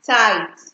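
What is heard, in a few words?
"tight", Nigerian Pidgin, female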